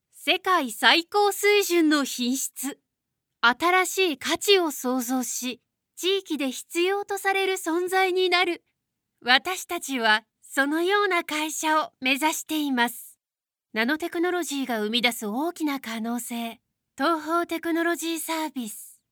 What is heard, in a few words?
female